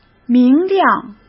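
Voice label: "明亮", Mandarin Chinese; hsk; chinese-flashcards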